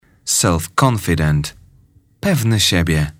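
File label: "self confident", English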